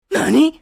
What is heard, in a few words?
What nani anime boy character